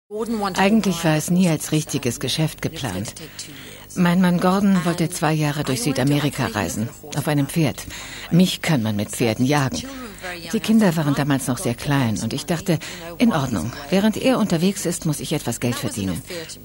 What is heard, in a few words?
over voice